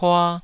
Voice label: "pua2", taiwanese

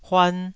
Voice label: "huan2", taiwanese

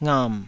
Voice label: "ngam3", taiwanese syllables

